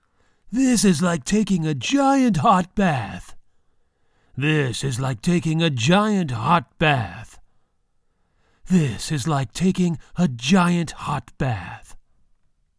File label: 外籍男 bath